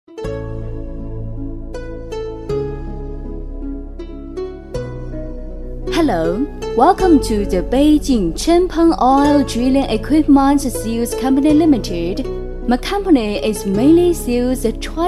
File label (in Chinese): 女 年轻甜美, 彩铃 [英文彩铃] 欢迎致电